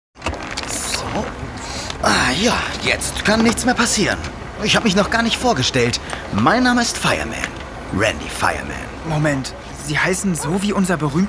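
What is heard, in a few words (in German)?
Hoerspiel, professioneller Sprecher; 3Fragezeichen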